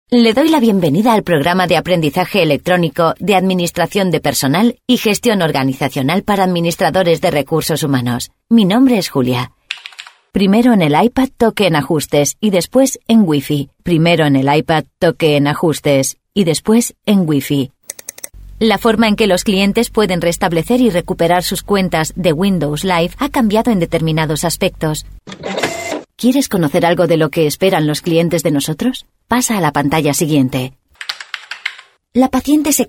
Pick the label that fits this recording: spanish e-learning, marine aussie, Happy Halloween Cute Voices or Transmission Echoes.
spanish e-learning